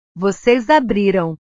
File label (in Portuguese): "voces abriram", portugues; Ustedes abrieron; verbos